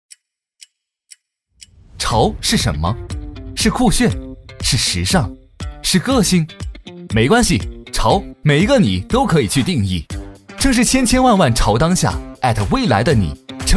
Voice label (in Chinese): 活力年轻激情; 东莞潮玩之都